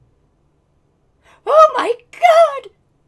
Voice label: Female Reactions

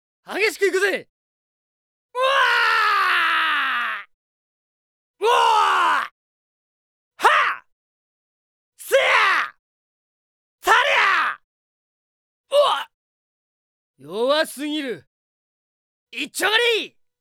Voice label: character voice; D-XHIRD; EIJI

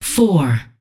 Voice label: Voices Announcer Status; unreal development kit

